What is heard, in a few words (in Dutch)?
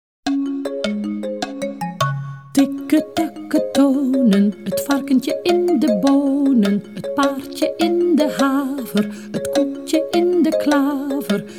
Tikke takke tonen, Rijmpjes en versjes voorgelezen